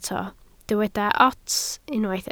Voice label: "ots", Welsh